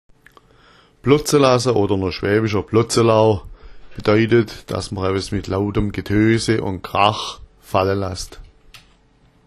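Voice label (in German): "blotza", schwäbisch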